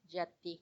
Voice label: "yatti", death, macuiltianguis; muerto